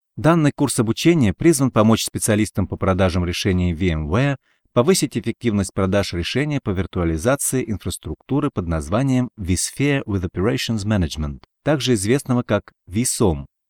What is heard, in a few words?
Russian Male